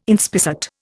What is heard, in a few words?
"inspissate", Indian